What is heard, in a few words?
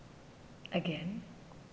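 "again", German, female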